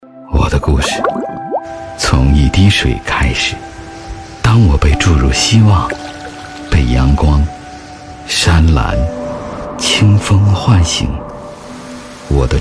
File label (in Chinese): 男 广告 名酒 自述, 浑厚低沉配音